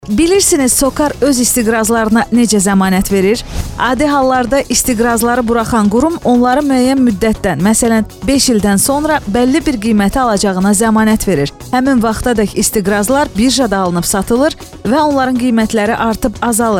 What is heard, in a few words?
Azerbaijan female